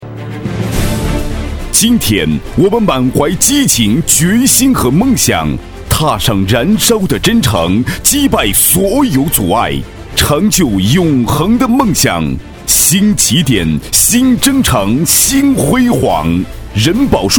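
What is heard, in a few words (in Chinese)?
【开场】男-激情震撼; 人保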